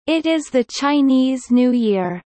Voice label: Kelly low; spring festival